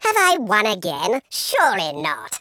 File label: "victory", Patronising speech